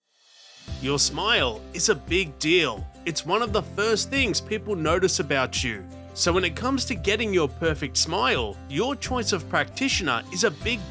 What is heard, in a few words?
foreigner